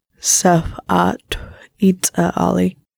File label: "dresser", Lushootseed